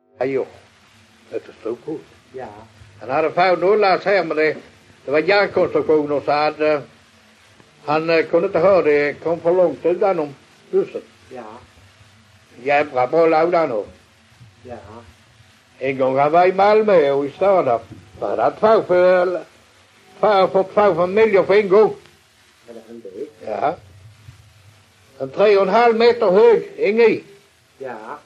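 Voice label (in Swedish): Allerum Skåne, dialekter svenska sagor; Folkminnen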